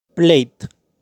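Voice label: "Plate", English; Restaurantes; restaurants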